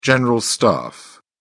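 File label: "general staff", British English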